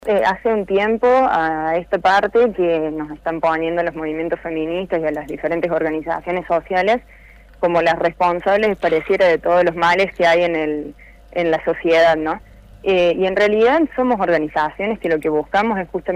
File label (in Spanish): DISCURSO